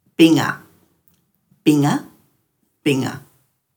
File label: "binga-", Dhurga